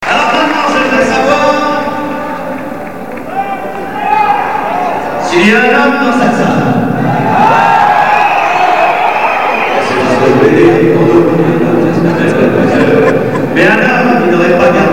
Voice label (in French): Intermede parle; Lyon